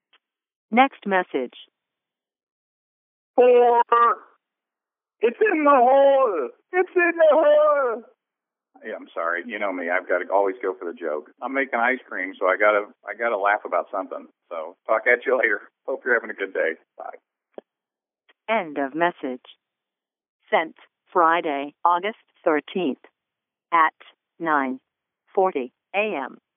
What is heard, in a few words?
voicemail; In the Hole